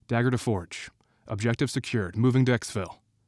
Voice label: Male